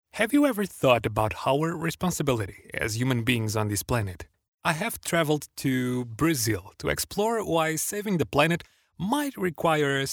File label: male portuguese voice over talent english; portugal